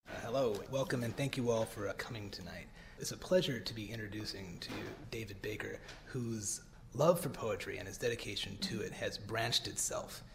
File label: reading